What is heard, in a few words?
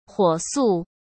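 "火速", Mandarin Chinese; chinese flashcards; hsk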